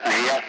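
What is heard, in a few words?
Speech, Russian; Allied Flight; Digits; DCS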